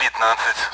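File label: Speech Sound Russian Common AWACS Numbers; DCS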